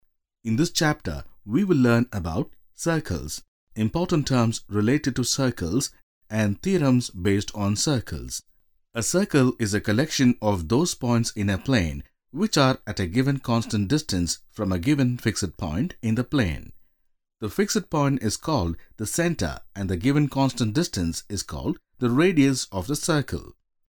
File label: male tamil voice over talent english